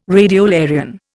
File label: "radiolarian", Indian